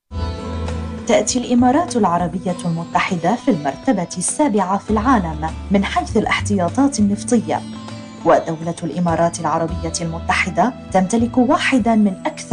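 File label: Arabic, female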